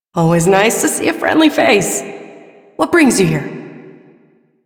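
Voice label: Female Brisk; Warframe; Duviri; Duviri Ambient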